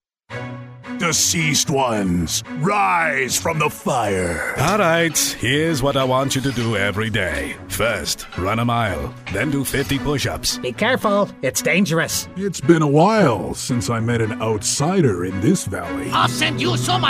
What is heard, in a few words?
Animation Character Demo